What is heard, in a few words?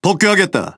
Speech Pilots Torpedo Land, KO